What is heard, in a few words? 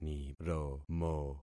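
"niromo", concatenated syllables; Affixing experiment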